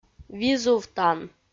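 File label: "визувтан", Komi, female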